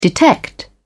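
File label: "detect", British English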